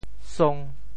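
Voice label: "song5", Teochew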